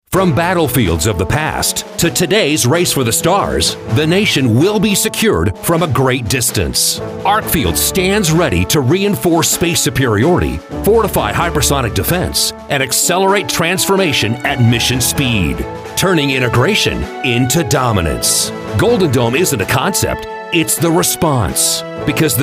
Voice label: TV Ad; Arcfield Golden Dome